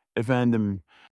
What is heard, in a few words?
"efendim", turkish